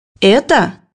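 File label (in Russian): "это", Russian; bukvar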